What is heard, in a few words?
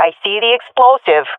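sees dropped bomb, swat female; vo; Counter Strike Model Pack; CS:GO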